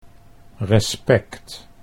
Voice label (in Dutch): "respect", Dutch